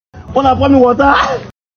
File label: Make una pour me water Comedy sound effect